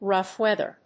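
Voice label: "rough weather", English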